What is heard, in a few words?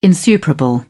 "insuperable", British; AmericanBritish